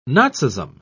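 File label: "nazism", British English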